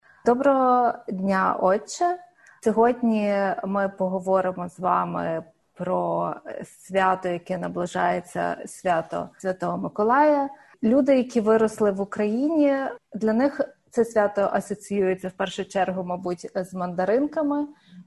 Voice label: ukrainian; СВ. МИКОЛАЙ ПРИХОДИТЬ ДО НАС. А ЩО МИ ЗНАЄМО ПРО НЬОГО...; SBS Ukrainian